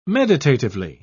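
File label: "meditatively", English, British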